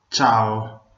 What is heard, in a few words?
hello, venetian